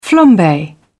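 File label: "flambe", British English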